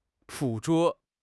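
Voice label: "捕捉", Chinese; HSK6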